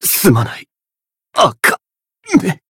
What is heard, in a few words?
retire, jp; shion